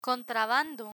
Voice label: "contrabando", Spanish; present perfect past subjuctive; learn spanish